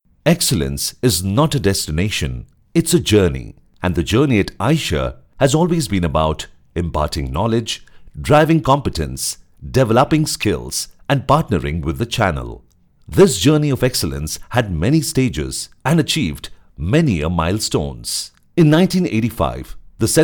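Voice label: corporate, English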